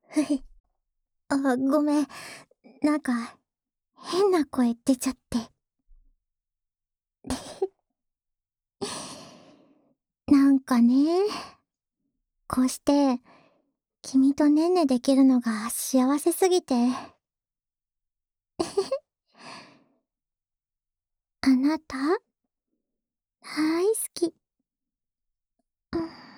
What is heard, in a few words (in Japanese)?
あまあま 添い寝